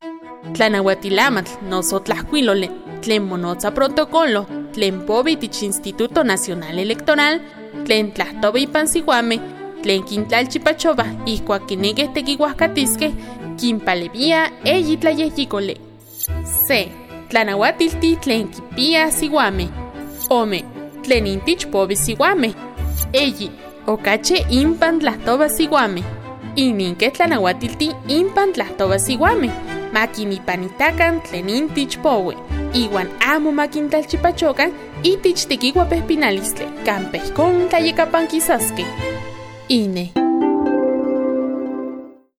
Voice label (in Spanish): NAHUATL